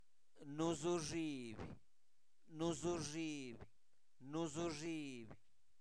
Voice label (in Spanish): está de rodilla, Zapoteco, santo domingo albarradas; INALI; verbos